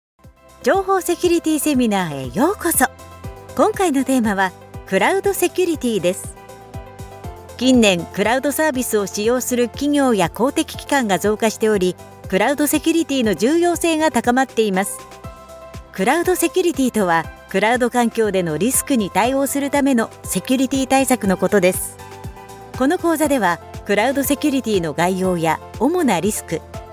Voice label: japanese voice elearning